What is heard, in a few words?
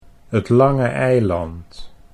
"'t Lange Eiland", Dutch